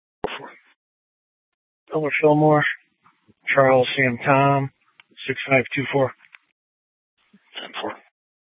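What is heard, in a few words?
Scottsdale Police South Dispatch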